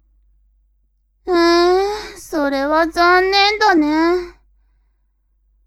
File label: woman